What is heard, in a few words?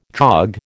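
"dog", American English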